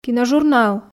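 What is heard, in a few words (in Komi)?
"киножурнал", Komi, female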